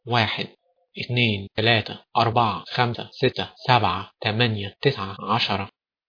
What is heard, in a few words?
egyptian; numbers